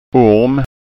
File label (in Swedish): "orm", skanska ord